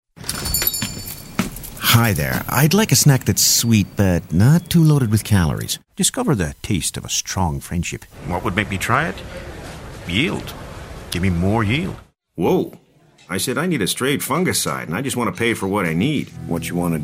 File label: Character Demo